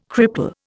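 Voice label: "cripple", Indian English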